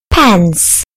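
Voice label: "pence", British English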